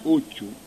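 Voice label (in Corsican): "ochju", Corsican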